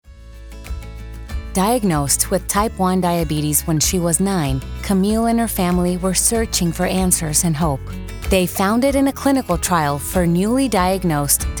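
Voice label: Childrens Health English, voice-over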